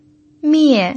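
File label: "mie", pinyin, fourth tone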